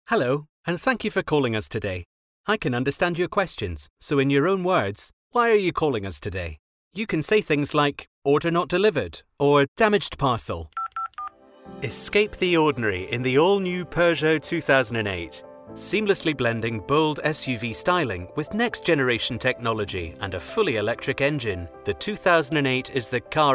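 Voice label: Scottish English, male, interactive voice response; accent of scotland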